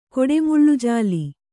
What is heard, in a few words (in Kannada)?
"ಕೊಡೆಮುಳ್ಳುಜಾಲಿ", Kannada; koḍe muḷḷu jāli; noun; = ಕೊಡೆಜಾಲಿ.